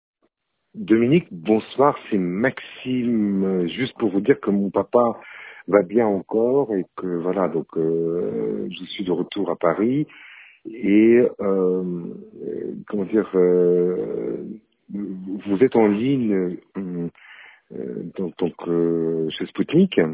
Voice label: voicemail